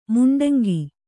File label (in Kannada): "ಮುಂಡಂಗಿ", kannada